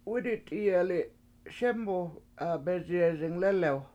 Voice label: This One Is Really Big Next To The Little Ones, Washo; wIIdi7 t7IIyeli7 SEmu beziyEzing lElew